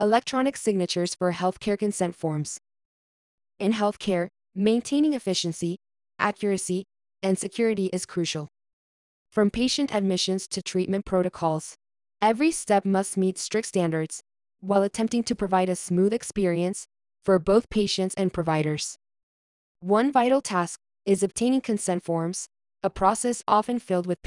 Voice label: voiceover